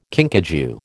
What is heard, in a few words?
"kinkajou", American